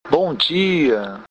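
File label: good morning, Brazilian Portuguese; Bom dia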